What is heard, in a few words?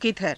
"kidhara", Hindi